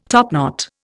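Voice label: "topless", Indian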